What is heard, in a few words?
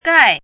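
"gai" fourth tone, pinyin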